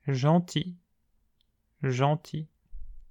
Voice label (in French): "gentil", French